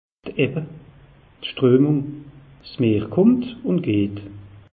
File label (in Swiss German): "ebbe", alsace; meteo